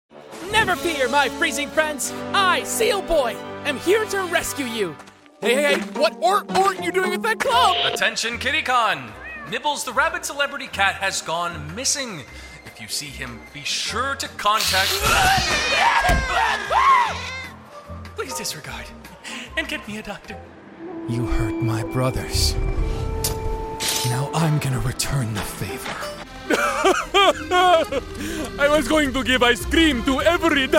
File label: animation